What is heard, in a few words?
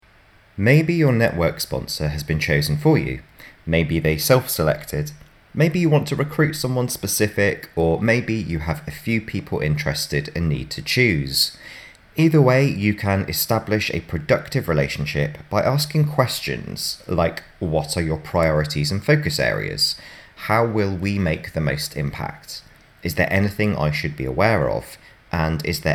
Narration; Radius